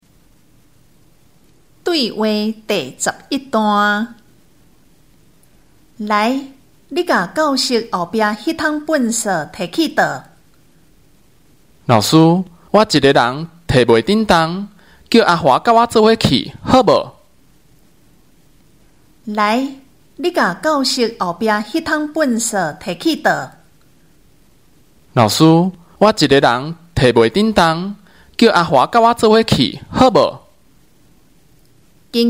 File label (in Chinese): 對話, 閩南語; 閩南語語言能力認證; 閩南語能力測驗題本